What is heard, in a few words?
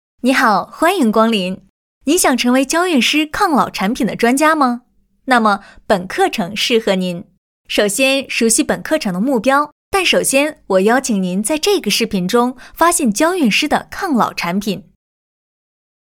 Mandarin China Narration; Clarins